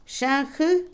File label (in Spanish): Otomí, mujer; hormiga; Animales; INALI